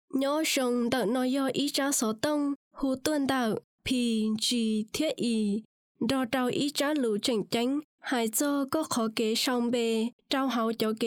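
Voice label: Hmong, IVR; GoLocalise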